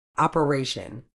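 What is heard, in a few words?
"operation", medical English, British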